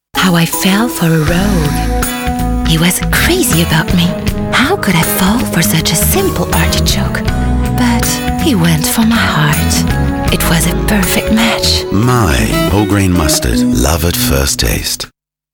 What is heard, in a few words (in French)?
anglais, voix; Maille